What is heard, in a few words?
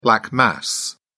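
"black mass", British English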